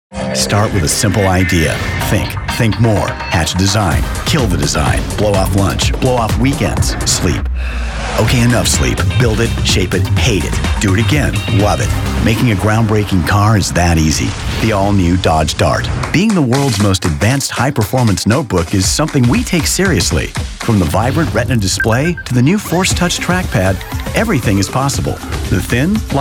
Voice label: Commercials